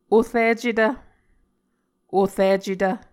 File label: "oricetv", Muscogee